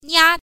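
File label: "nia", pinyin, first tone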